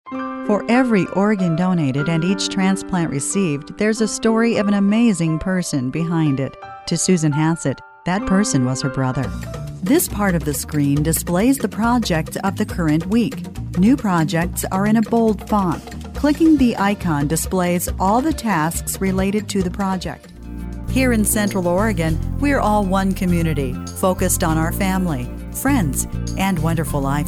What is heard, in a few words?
Narration